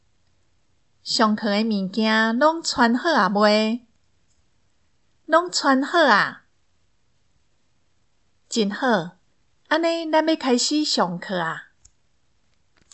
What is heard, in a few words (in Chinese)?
課室生活用語 (台語)